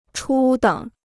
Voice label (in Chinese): "初等", Chinese